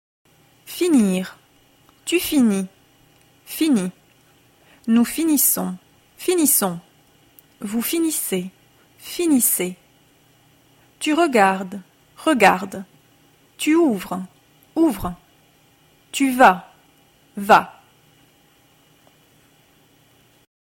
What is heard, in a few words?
pronunciation imperative present tense finir